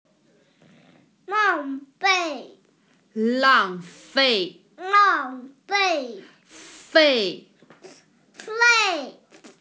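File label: "lang4fei4", Mandarin Chinese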